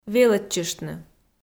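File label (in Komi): "велӧдчыштны", Komi, female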